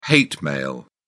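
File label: "hate mail", British English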